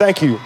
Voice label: "thank you"; expletives

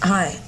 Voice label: hi, female; Voice; expletives

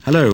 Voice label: "hello", male; Voice; expletives

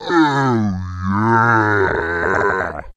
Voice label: "oh yeah" stretched

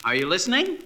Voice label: are you listening announcer; questions

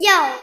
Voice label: "yo" boy; ooh ahh

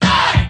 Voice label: "hey", group of men

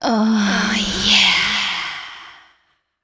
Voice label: "oh yeah" sexy

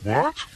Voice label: what, cartoon; questions

